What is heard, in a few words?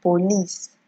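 "police", Nigerian Pidgin, female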